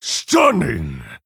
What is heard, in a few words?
Male Orc Ogre Wow